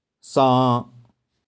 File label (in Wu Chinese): "霜", 台州語; 霜，露凝。[frost, hoarfrost](n.); 玉環